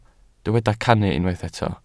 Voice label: "cannu", Welsh